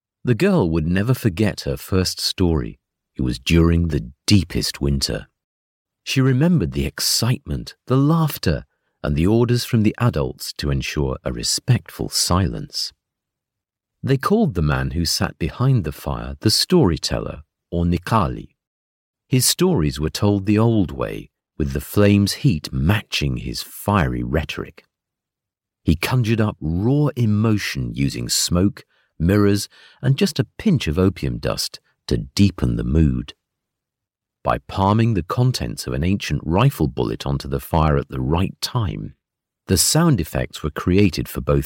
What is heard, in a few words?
audiobook, Yorkshire Natural